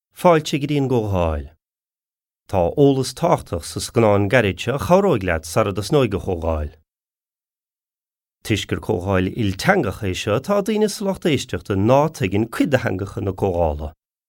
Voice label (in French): gaelique